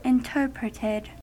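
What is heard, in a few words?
"interpreted", US English; pronunciations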